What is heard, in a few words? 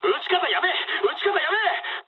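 player friendly fire mood high, speech japanese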